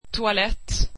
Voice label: swedish toilet